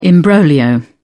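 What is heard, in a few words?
"imbroglio", British English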